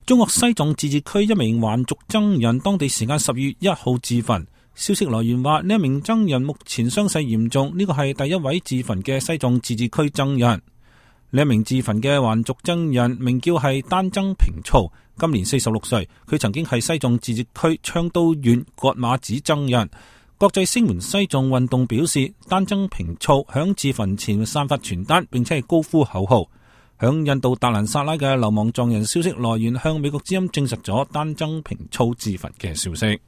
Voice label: cantonese; Tibet; 西藏第一位還俗僧人自焚; 新聞